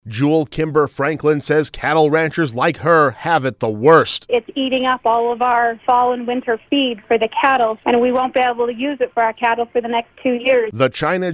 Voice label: PSA; GOOSE CREEK FIRE